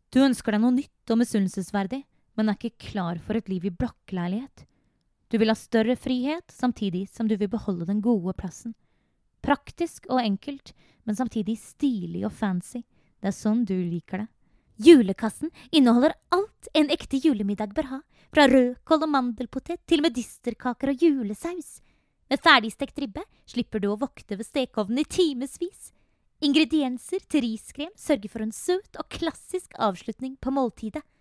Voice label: Norwegian